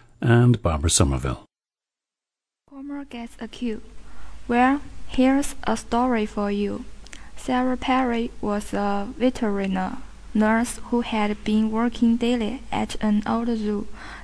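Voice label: jiangsu, dialects of English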